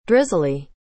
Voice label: "drizzly", English